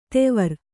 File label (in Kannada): "ದೇವರ", Kannada